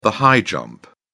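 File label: "high jump", English, British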